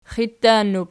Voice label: "xittannub", Archi